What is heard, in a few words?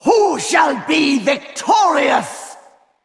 Female; Val'kyr; Trigger Brawl